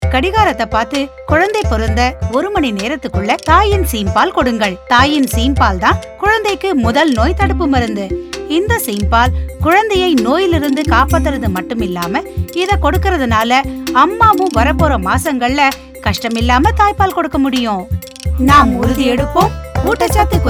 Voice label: Early Breastfeeding Tamil, Audio Spot; poshan